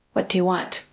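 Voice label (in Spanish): Voice Acting, es, female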